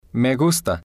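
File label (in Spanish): "me gusta", spanish, male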